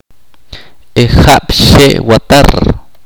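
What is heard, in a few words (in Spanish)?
"el año que viene", Ch'orti'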